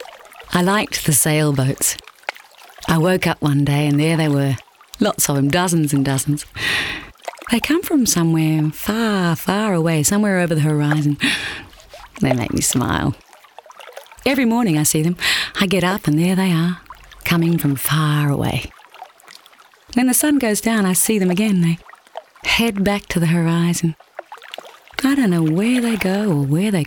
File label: Corporate and Narration